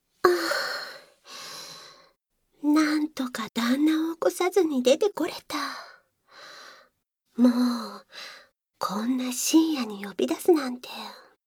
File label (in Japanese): 深夜に呼び出して玄関前で仁王立ちフェラ(バイノーラルマイク収録 効果音あり大きめ), ASMR; 効果音大差分